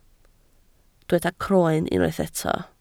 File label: "croen", Welsh